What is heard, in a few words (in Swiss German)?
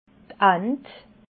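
"ant", Alsatian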